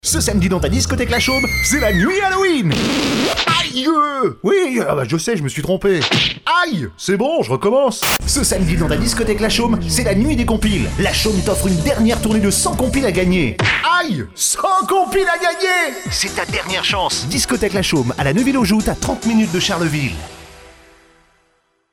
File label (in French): radio pub spot